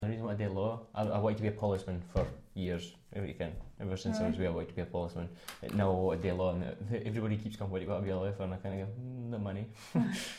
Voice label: hawick younger, dialects